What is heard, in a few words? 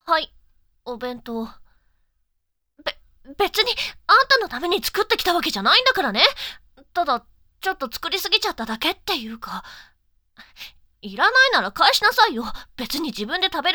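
voice tsundere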